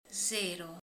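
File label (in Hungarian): "nulla", Hungarian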